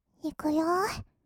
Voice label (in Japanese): いくよ, kawaii voice; ASMR; 色々なワンショット